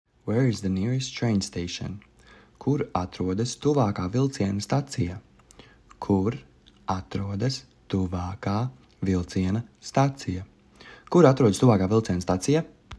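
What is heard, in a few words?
"Where is the nearest train station", Latvian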